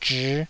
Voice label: "zhii5", Taiwanese syllable